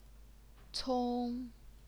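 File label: "cong", first tone, Chinese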